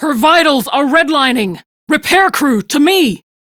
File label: Crew Ship Low, Steel Meridian Female; Dialog; en; Warframe; RailjackCrew